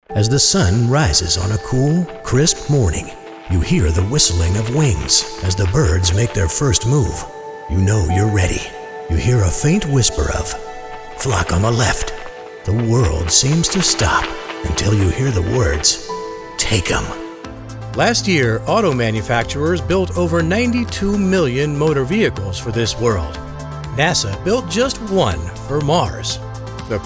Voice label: Narration